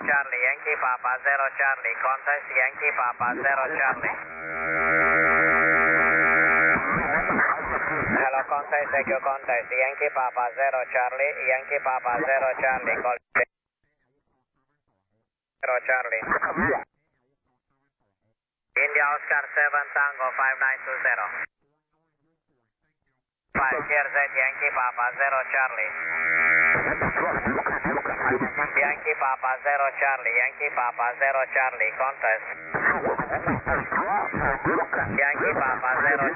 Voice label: LSB 7MHz; CQWW SSB